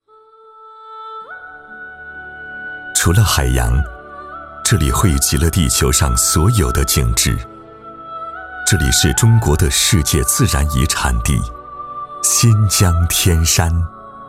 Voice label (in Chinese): 纪录片新疆天山